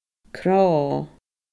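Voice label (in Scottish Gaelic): "crodh", Scottish Gaelic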